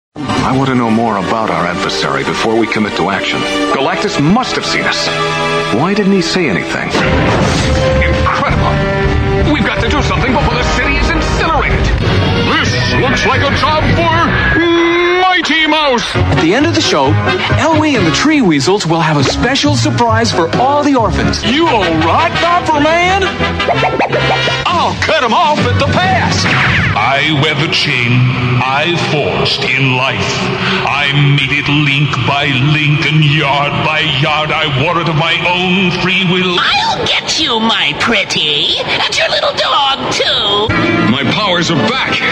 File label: animation characters